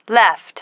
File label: "left", female